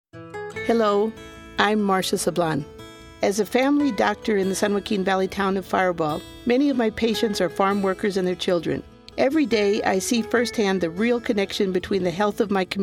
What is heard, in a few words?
commercial; sablan